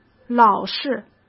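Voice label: "老是", Mandarin Chinese; hsk; chinese-flashcards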